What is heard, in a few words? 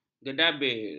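"gedabir", Malay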